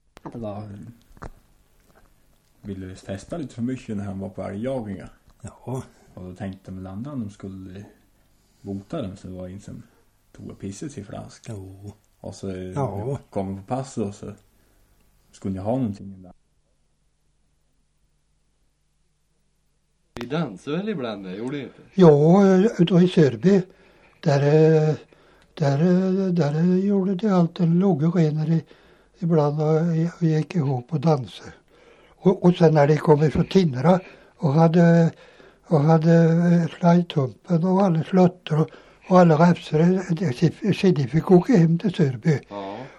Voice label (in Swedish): Bankekind, dialekter; Folkminnen